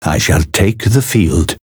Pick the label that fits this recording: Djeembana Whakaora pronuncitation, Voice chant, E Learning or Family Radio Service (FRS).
Voice chant